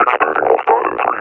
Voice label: announce attack cover, officer; Half Life Alyx; Half Life Model Pack